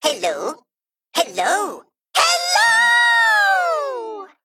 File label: Male Mech, MIRROR START; en; AnnoyO